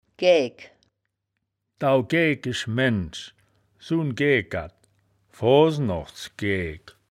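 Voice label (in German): "gaeaegk", wittlicher; Gäägk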